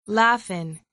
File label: laugh in